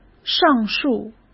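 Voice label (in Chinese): "上述", Mandarin Chinese; hsk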